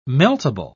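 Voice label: "meltable", British English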